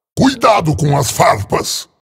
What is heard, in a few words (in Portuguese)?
voz pt br; maokai